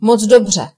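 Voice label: awesome, Finnish; gcompris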